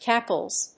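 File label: "cackles", English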